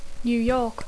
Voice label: "New York", English